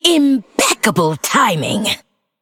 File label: Female Forsaken; Kurtrus Mission8HeroPower